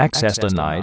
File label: access denied male, computers